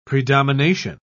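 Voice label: "predomination", English, British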